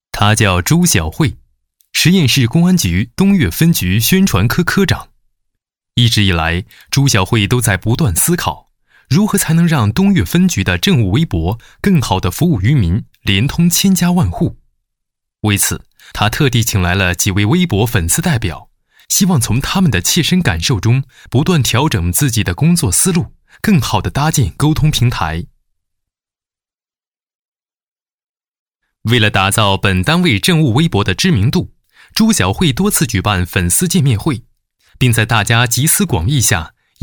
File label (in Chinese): 男 栏目 配音, 国语男声配音, 青春时尚; 《一线》